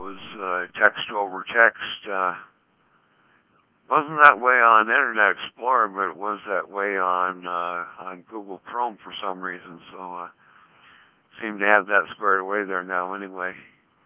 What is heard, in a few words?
FreeDV 20m